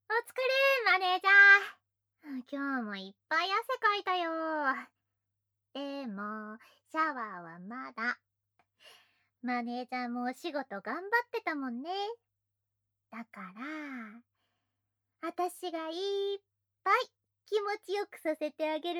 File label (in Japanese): よしよしボイス; アラームボイス; SEなし